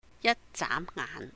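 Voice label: "yāt jáam ngáahn", in a flash; in the twinkling of an eye, Cantonese; 一眨眼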